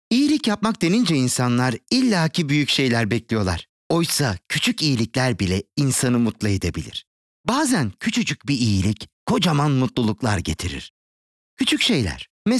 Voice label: male turkish friendly and warm commercial; Devine Voices

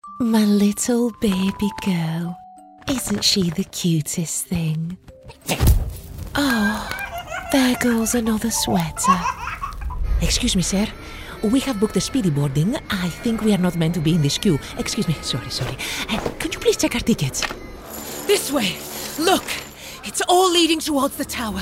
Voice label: female multiple accents animation and character; english and greek; voices

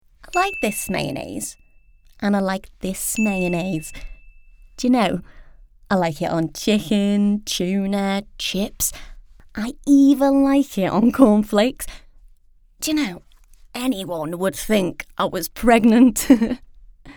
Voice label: female English Liverpudlian accent commercial; voices; aldi; devine voices

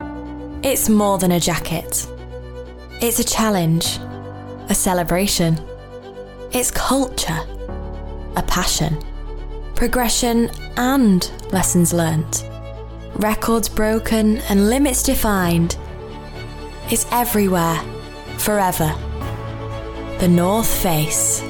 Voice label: female english neutral northern accent commercial; english northern accents

